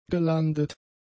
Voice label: "gelandet", German; adjectives